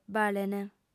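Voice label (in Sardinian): "balent", female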